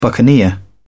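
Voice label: "buccaneer", English, British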